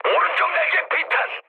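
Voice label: Korean right wing mood med, speech; War Thunder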